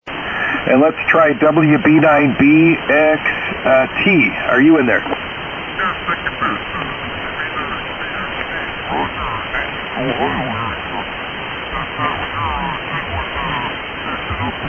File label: Swan 350 MKII & Swan Amp; Fairborn, OH.